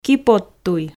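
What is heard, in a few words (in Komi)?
"киподтуй", Komi, female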